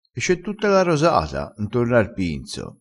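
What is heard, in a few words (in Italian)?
"rosata", vocabolario dialettale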